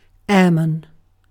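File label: "airman" British English; pronunciations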